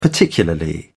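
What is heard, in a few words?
"particularly", British English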